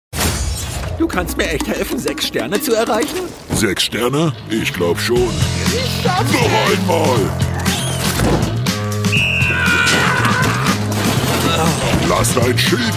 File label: german voice videogame